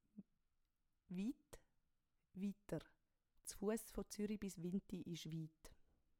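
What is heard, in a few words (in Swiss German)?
"wiit", Swiss German; Wortschatz Kapitel 1; Kapitel 1 Hauptwortschatz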